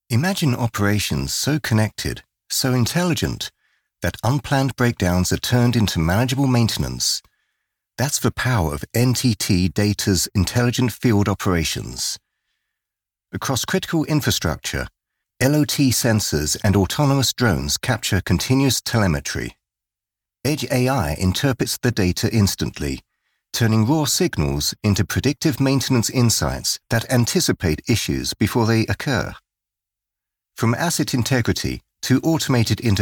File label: british voice over scientific corporate film